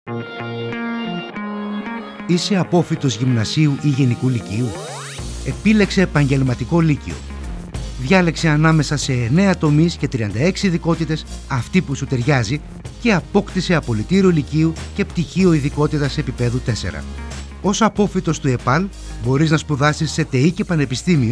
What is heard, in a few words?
radio message; epal